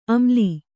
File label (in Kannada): "ಅಮ್ಲಿ", Kannada